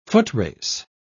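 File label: "footrace", en, uk British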